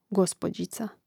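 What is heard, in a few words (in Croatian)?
"gospođica", hrvatski; mreznik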